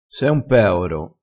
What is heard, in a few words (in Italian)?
"peoro", crespinese